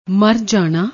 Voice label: "mar jaana", Punjabi; ਮਰ ਜਾਣਾ